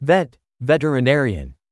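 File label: "vet veterinarian", English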